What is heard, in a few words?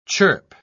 "chirp", pronounce